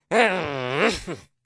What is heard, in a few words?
angry customer; burger time